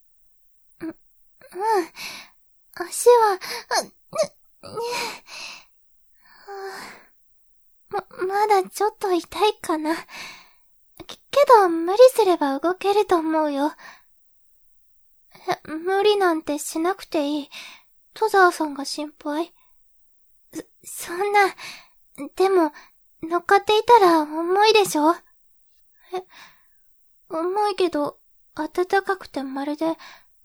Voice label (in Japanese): ボイスのみ